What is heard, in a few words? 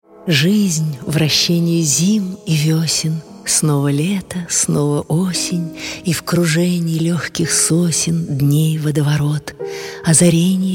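Russian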